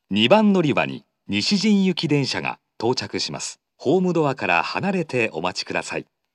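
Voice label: approaching Nishijin, station announcement, Fukuoka city subway; 接近-西新行; 2番のりば放送; 福岡市地下鉄 空港線・箱崎線 中洲川端駅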